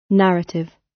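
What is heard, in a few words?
"narrative", British English; The arts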